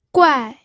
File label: "guai4", pinyin audio